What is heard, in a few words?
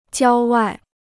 "郊外", Chinese